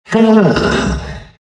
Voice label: happy; rubick

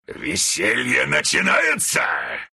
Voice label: levelup, ru; Tusk; dota2

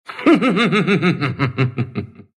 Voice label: Monkey laugh, ru; Dota 2

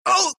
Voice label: pain, ru

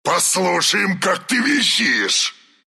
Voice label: attack, ru; dota2

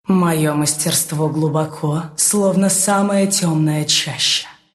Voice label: levelup, ru; Dota 2

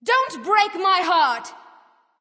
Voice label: Female Kill Streak; Smite; Valentine Khepri

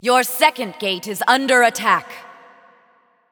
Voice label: Match Under Attack Gate Second, Announcer; Lore Lady; Paladins

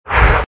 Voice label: big happy; Undying

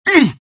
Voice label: pain; Disruptor

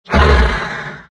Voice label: happy; rubick

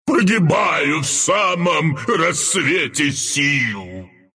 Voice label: death, ru; Dota 2; Zuus; arc

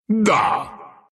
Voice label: move, ru; dota2

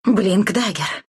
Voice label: blink, ru

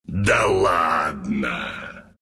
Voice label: death, ru; Wraith; Dota 2

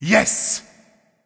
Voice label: emote yes; Brynhildr; Bacchus; Smite

